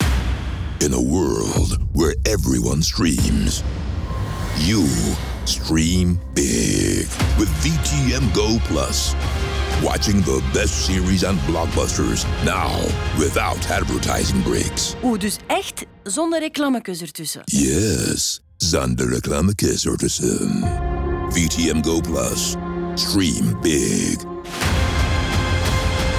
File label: Radio